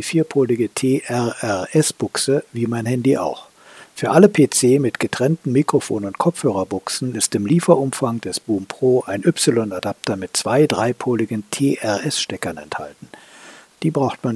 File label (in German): V-Moda BoomPro direkt am Thinkpad T460s